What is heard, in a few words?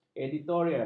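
"editorial", Malay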